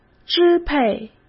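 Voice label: "支配", Mandarin Chinese; chinese flashcards; hsk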